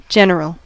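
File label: "general", American English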